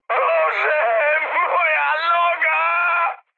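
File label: Polish damaged pilot mood high; speech; War Thunder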